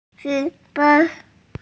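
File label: "qu1fen1", Mandarin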